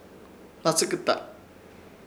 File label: "Hnatsɨkɨta" piojo, Ishir Chamacoco